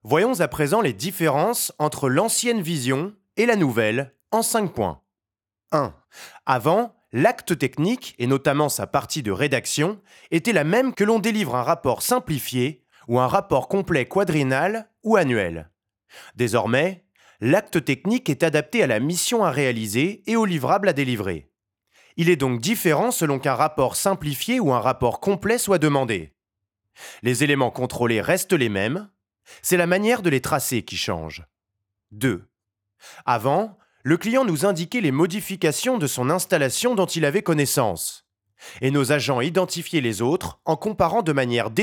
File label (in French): VO Corpo